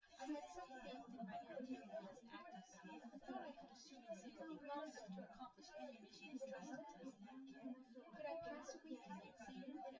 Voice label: female; SiSEC08; SiSEC underdetermined